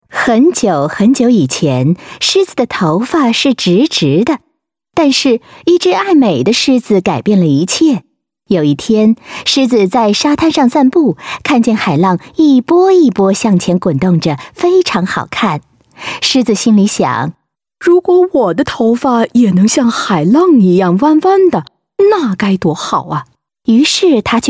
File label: Mandarin Female Storytelling; China